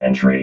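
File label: "entry", robot voice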